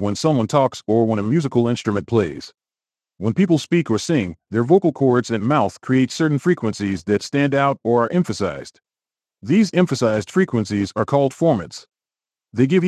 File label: deep voice; speex